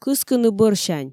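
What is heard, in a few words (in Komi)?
"кыскыны бӧрсянь", Komi, female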